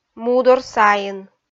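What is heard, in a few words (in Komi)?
"мудор сайын", Komi, female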